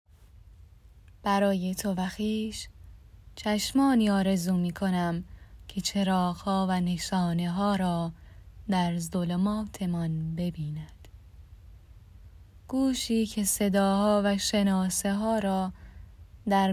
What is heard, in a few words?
narrator